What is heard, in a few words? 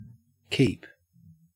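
"keep", Australian English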